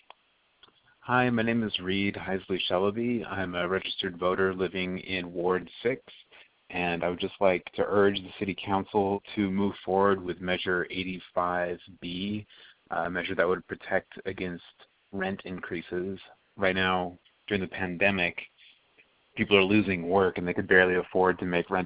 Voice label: voicemail